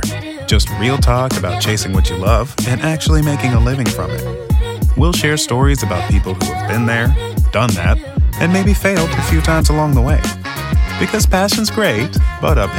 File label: Podcast Host